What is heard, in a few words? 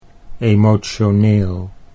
"emotioneel", Dutch